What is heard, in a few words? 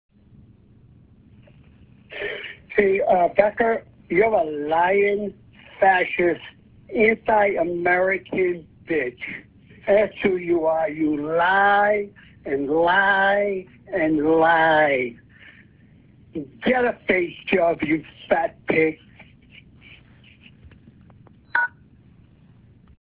phone message